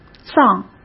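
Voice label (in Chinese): "葬", Mandarin Chinese; hsk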